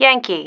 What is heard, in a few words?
"yankee", female